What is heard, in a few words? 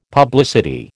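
"publicity", American English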